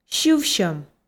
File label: "сьывсьӧм", Komi